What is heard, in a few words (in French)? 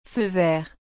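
"feu vert", French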